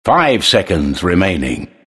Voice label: announcer draft count five; trine